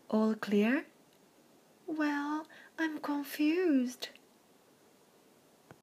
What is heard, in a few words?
im confused talk